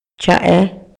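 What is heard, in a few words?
Maya, male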